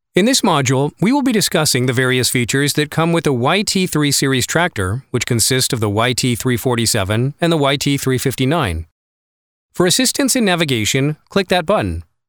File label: E-Learning